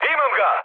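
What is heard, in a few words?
Serbian player killed enemy mood high, speech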